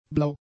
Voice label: "blau", German; adjectives

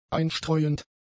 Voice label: "einstreuend", german; adjectives

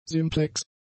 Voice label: "simplex", German; adjectives

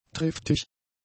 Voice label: "triftig", German; adjectives

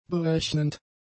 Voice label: "berechnend", German; adjectives

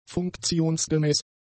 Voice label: "funktionsgemäß", German; adjectives

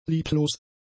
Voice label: "lieblos", German; adjectives

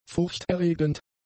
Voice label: "furchterregend", German; adjectives

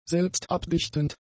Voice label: "selbstabdichtend", German; adjectives

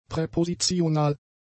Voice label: "präpositional", German; adjectives

